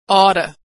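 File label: "ought", American English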